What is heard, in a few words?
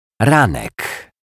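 "ranek", Polish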